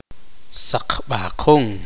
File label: "granizo de hielo", Tektiteko